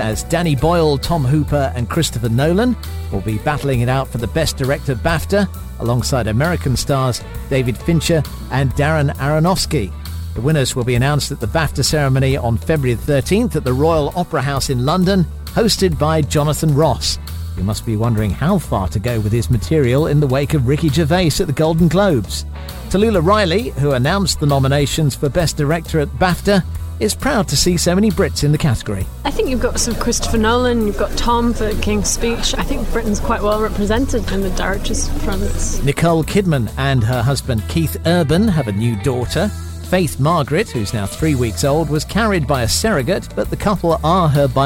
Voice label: entertainment news